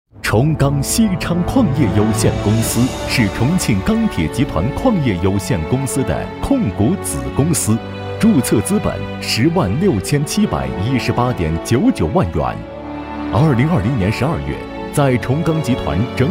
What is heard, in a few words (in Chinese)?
男 企业宣传片; 重庆钢铁